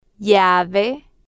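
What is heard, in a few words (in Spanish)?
"llave", Spanish, female, slow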